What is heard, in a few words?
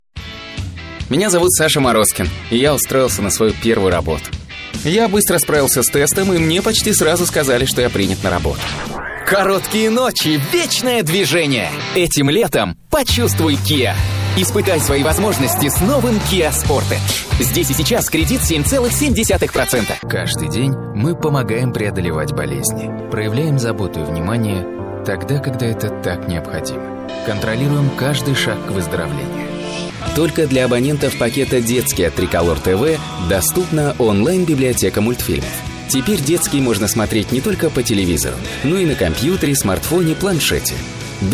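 M, Russian, male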